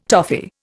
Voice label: "toffee", Indian

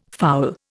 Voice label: "fowl", Indian

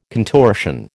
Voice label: "contortion", American

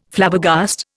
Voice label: "Flabbergast", Indian

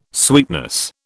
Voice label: "sweetness", British

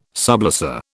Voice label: "sublessor", British

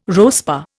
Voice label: "RoSPA", Indian

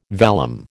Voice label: "vellum", American

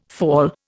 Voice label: "fall", Indian

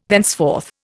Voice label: "thenceforth", Indian

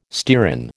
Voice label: "stearin", American

